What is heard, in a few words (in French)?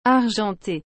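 "argenter", French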